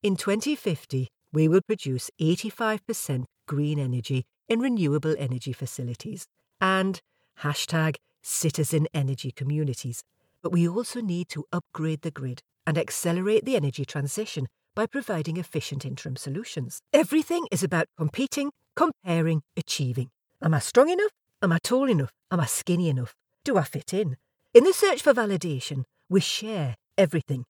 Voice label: Geordie corporate